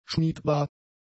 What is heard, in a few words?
"schmiedbar", German; adjectives